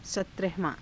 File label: "satrahavaa~", hindi